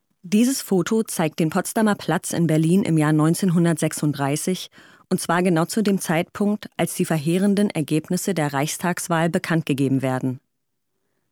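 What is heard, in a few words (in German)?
Kommentar, Sprecher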